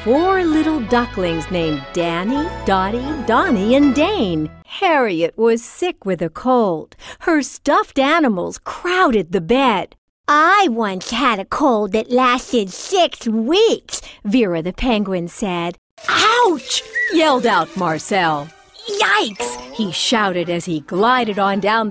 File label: Children, female voice